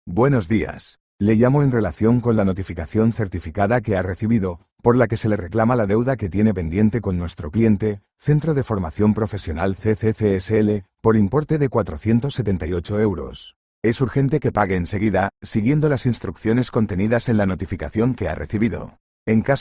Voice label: audio call